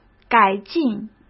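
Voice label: "改进", Mandarin Chinese; hsk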